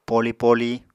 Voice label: "poli-poli", Apatani

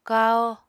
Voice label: "kahó", Apatani

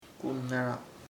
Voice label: orphaned huerfano, chamacoco

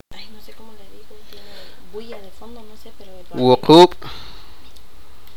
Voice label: sipakapense; seven

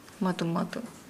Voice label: Nukuoro; broken in many places

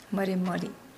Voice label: Nukuoro; carry things repeatedly

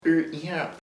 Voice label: "boyfriend novio", chamacoco

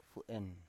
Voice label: "fu7en", Biak

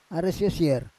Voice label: "ares yosier", Roon

